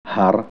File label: "mountain", hebrew sounds